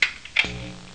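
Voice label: "bien", Spanish; Libro Electronica Basica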